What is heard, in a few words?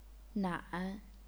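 "nan", third tone, chinese pinyin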